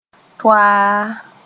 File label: "toaa", moore